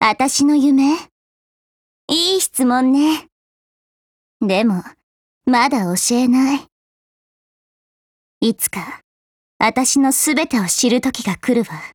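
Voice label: Japanese dialogue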